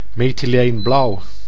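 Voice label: "methyleenblauw", Dutch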